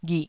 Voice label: "ghih2", Taiwanese syllable